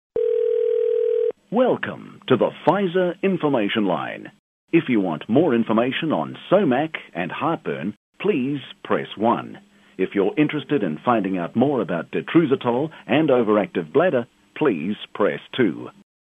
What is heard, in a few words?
ivr